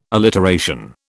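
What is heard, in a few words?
"alliteration", British English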